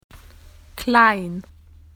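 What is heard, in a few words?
"narrow", Australian English; PictureDictionary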